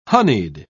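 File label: "honied", British English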